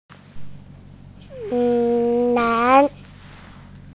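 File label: "nan2", Mandarin Chinese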